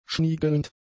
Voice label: "schniegelnd", German; adjectives